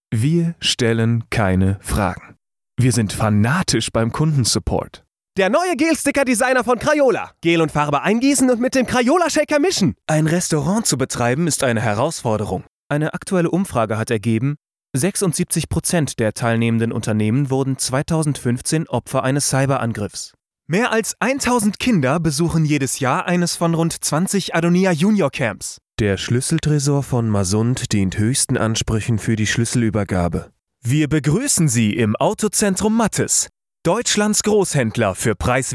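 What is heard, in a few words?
German; GoLocalise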